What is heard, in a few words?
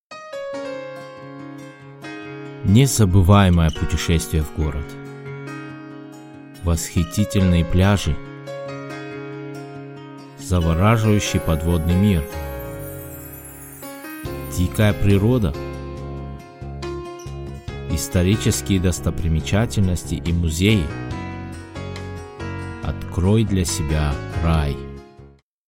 Discover a Paradise Russian